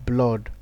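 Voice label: "blood", Nigerian Pidgin, male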